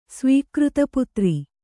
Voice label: "ಸ್ವೀಕೃತಪುತ್ರ", Kannada